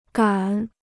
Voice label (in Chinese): "敢", Chinese; gǎn; to dare; daring